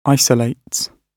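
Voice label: "isolates", British English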